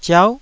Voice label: "ziau9", Taiwanese syllable

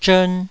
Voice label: "zhern2", Taiwanese

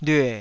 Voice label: "lyue3", Taiwanese

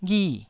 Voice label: "ghi4", Taiwanese syllable

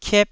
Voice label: "kiep1", taiwanese syllable

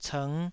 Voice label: "cng5", Taiwanese

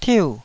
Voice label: "tiu4", taiwanese Syllables

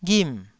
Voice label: "ghim4", Taiwanese syllable

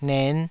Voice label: "nen5", taiwanese

